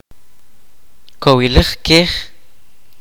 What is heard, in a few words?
"mule", kaqchikel